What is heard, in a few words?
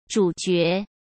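"主角", Mandarin Chinese; hsk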